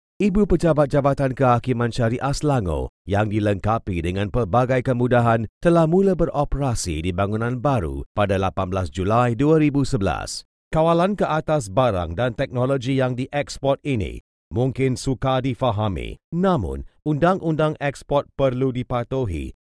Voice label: Malay, narration